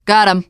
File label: kill confirmation, teengirl; player; survivor; voice